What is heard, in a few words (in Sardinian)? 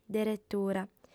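"deretura", female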